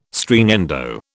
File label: "Stringendo", British